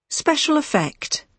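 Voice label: "special effect", British English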